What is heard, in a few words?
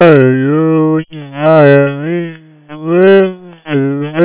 babble vt Space